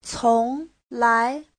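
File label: "cónglái", Mandarin Chinese; Giáo trình Hán ngữ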